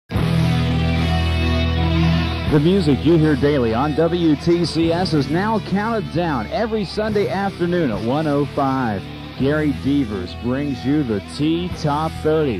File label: countdown promo